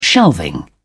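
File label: "shelving", British English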